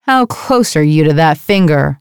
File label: Annoying; Fate